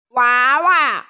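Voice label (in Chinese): "wa2wa5", Mandarin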